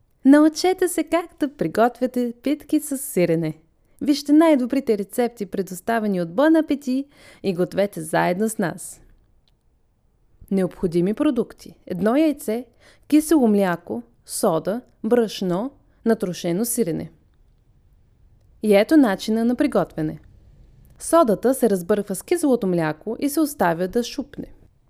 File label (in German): Bulgarisch e-Learning Kochanleitung